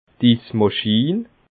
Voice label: "ismaschin", alsace

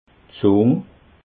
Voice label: "zung", alsace

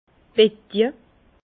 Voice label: "betje", Bas Rhin; vin; cuve ovale

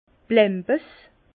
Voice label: "blambes", Bas Rhin; vin

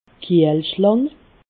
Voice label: "kiehlschlang", alsace

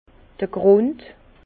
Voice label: "grund", Bas Rhin; vin; terre

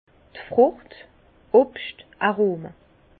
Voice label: "frucht", Bas Rhin; vin; arômes de fruits

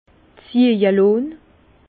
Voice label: "ziehje", Bas Rhin; vin

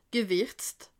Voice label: "gewirzt", alsace

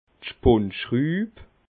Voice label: "spundschruub", alsace

